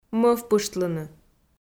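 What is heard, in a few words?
"мӧвпыштлыны", Komi-Zyrian, female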